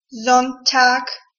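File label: "Sonntag", German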